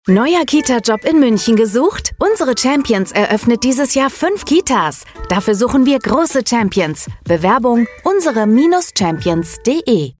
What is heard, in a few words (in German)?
Funkspot; Unsere Champions GmbH